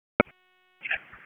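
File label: EMS Dispatch; p25; wake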